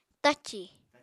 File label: "tacì", Apatani